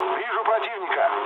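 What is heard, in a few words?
Russian enemy detected mood low, speech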